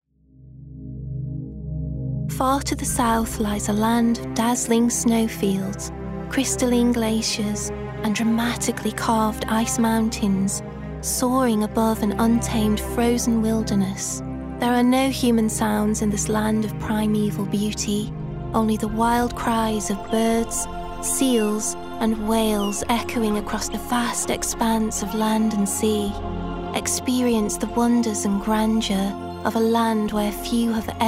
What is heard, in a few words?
Antarctica Documentary Narration, voiceover